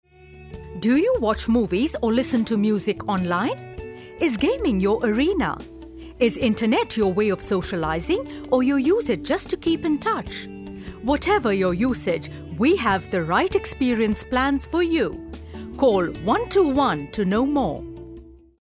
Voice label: VO, female voice

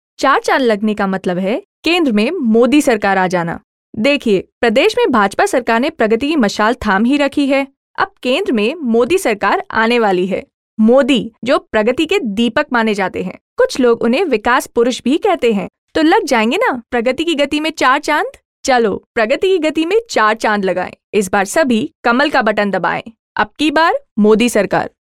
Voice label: hindi sample, female voice

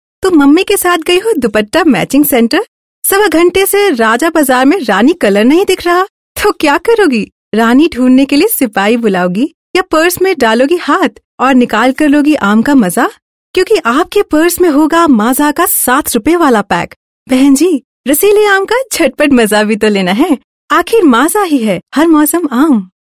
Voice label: hindi sample, female voice

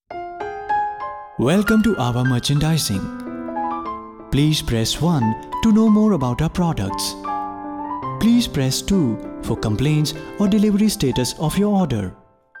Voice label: IVR, male voice; AVA IVR

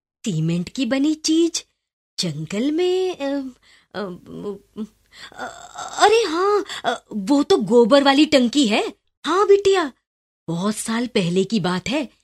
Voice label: female voice solo

